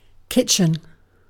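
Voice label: "kitchen", British English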